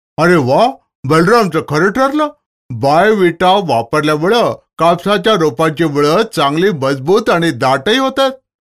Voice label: MARATHI MALE